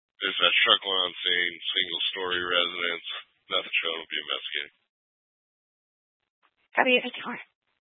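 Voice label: Fire Dispatch, Scanner audio recording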